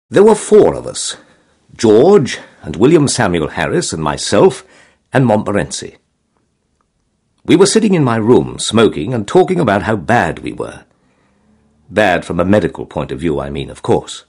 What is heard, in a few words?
audiobook